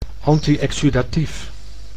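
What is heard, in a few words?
"anti-exudatief", Dutch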